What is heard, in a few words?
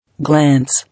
"Glance", English